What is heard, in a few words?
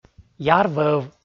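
"яр вӧв", Komi, male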